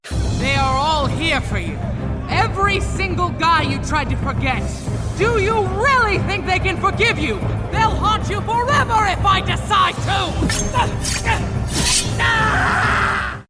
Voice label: Female hunter viking warrior fighter intense gritty aggressive revenge tough